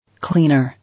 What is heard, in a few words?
"Cleaner", English